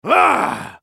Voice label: spellcast effort, en US; Swain